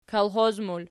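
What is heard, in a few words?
"kolxoz" plural, Archi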